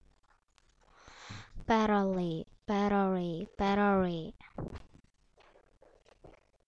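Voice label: "battery", spoken word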